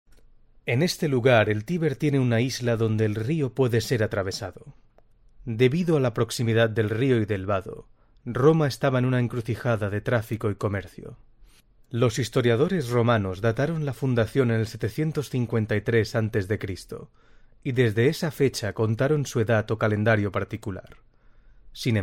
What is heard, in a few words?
locutor spanish voiceover